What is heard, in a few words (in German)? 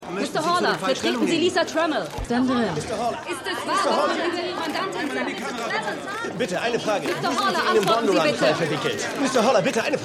Reporterin Reporter Innen Menge; The Lincoln Lawyer; Stimmproben; USA